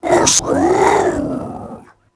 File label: trando vox, creatures; Star Wars Obi-Wan; XBOX